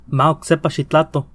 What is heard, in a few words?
say again nahuatl